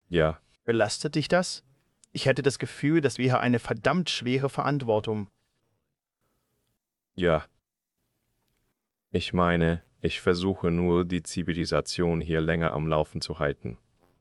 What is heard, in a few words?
german; Subformer